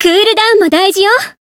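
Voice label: heal, Japanese; renri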